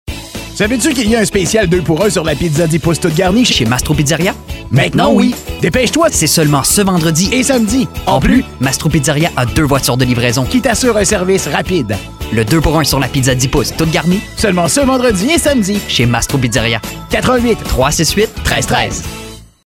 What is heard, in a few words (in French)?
deux voix ping pong